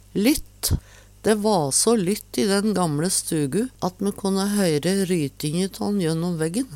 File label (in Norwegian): lytt, Numedalsmål